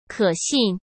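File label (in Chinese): "可信", Mandarin Chinese; chinese-flashcards; hsk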